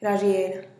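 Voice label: "razhiel", Teotitlán del Valle Zapotec; find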